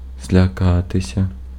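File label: Ukrainian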